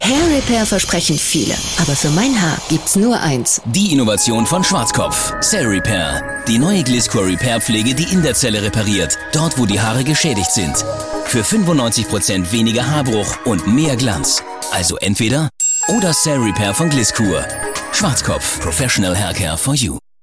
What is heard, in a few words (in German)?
TV Spot werbung; Schwarzkopf; Gliss-Kur